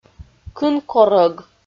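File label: "кын корӧг", Komi-Zyrian, female; kpv audio dict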